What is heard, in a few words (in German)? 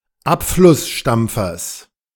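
"Abflussstampfers", German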